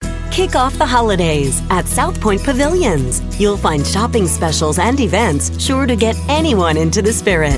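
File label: US English Commercial; GoLocalise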